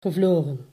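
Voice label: "gevlogen", Dutch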